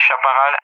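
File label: "Chaparral", English speech; Allied Flight; AirDefence; DCS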